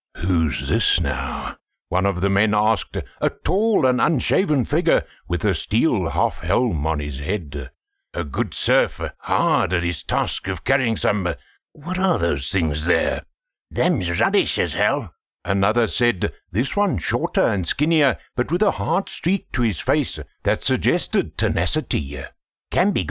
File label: english south african audiobooks